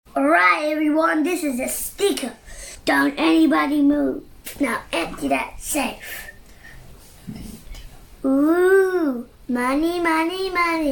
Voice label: Multiple Character US ACCENT

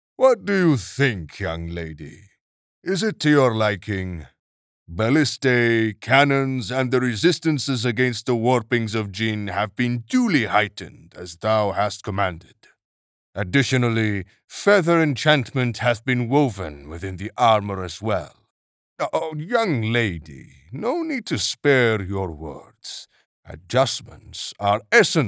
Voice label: Elder

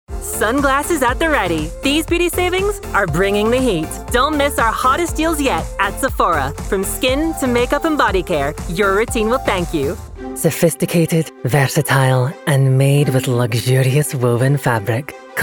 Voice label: Commercial, en-NZ

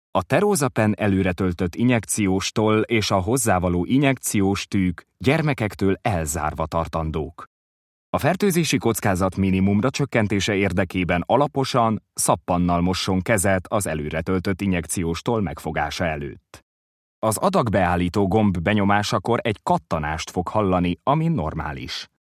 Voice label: e-learning; TERROSA

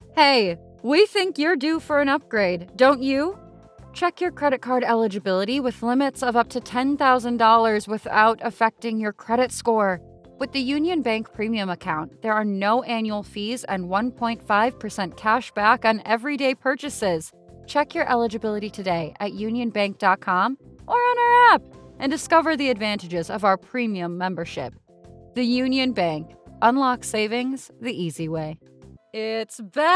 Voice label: Commercial

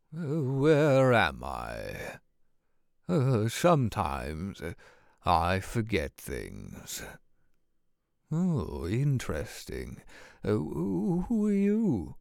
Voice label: Elderly

